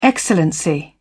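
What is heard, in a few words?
"excellency", British English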